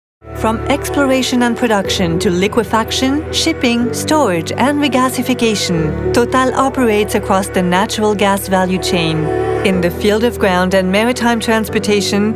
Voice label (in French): Institutionnel voix US; TOTAL